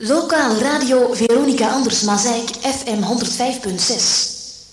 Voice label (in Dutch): Jingle gesproken; Station Calls